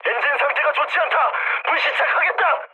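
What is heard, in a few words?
Korean damaged engine mood med; War Thunder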